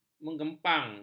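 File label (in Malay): "mengempang", Malay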